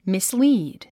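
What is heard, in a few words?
"mislead", English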